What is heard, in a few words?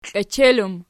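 "klechel" plural, Archi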